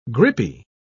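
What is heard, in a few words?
"grippy", British English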